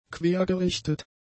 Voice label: "quergerichtet", German; adjectives

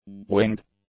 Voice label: "winged", english; adjectives

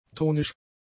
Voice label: "tonisch", German; adjectives

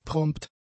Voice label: "prompt", German; adjectives

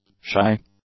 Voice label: "shy", English; adjectives

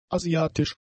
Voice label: "asiatisch", German; adjectives

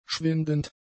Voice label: "schwindend", German; adjectives

